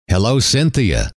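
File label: hello, male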